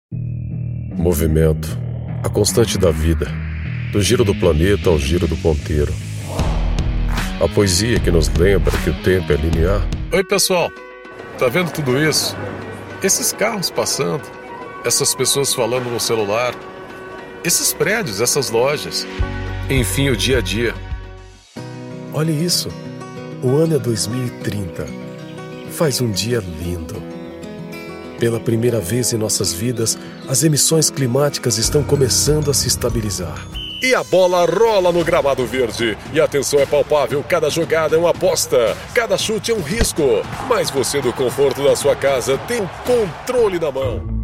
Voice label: portuguese brazilian commercial